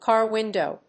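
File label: "car window", English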